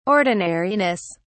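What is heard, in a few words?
"ordinariness", English, British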